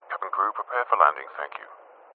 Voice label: transport aircraft pilot says cabin crew prepare for landing